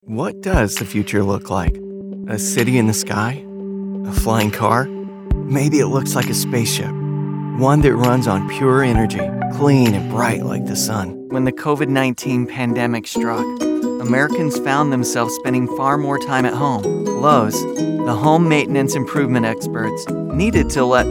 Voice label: Commercial Voiceover Reel